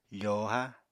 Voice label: "fòohá", Apatani